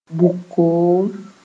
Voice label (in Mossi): "bug-koom", moore